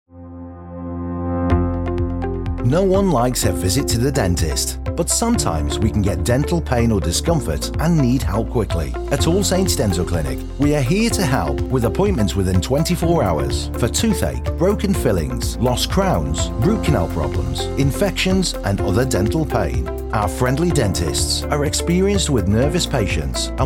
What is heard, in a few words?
Ad